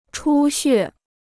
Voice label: "出血", Chinese

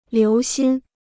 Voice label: "留心", Chinese

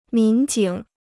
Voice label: "民警", Chinese

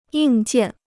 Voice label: "硬件", Chinese

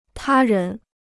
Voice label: "他人", Chinese

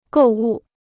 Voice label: "购物", Chinese

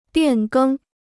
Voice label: "变更", Chinese

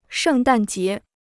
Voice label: "圣诞节", Chinese

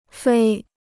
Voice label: "飞", Chinese

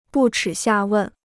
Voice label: "不耻下问", Chinese

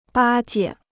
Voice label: "巴结", Chinese

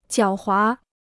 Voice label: "狡猾", Chinese

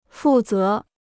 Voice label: "负责", Chinese

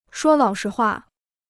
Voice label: "说老实话", Chinese

